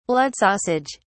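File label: "blood sausage", British English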